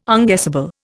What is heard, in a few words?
"unguessable", Indian